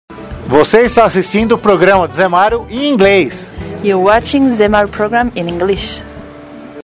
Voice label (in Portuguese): vinheta ingles